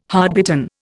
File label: "hardbitten", Indian